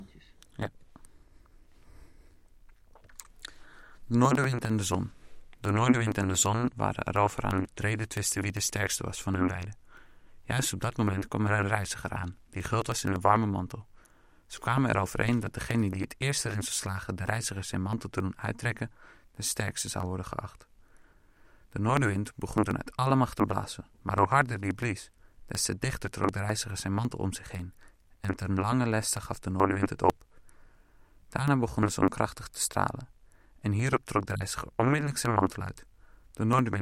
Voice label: Pulse Burg Resynthesis, North Wind and the Sun; VowelBender